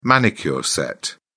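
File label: "manicure set", British English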